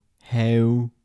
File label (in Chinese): "口" 台城 男